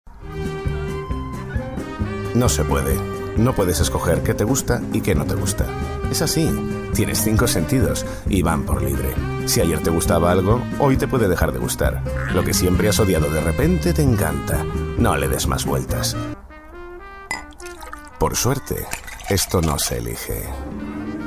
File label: Spanish Spain